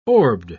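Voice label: "orbed", English, British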